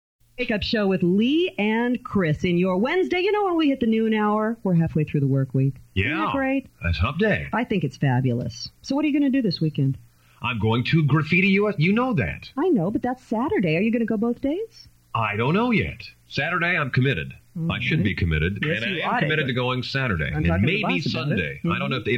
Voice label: promos; KEXX KWG Cellular One